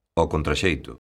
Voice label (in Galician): "ao contraxeito", lingua galega; locución adverbial; ɔkontɾaˈʃejto̝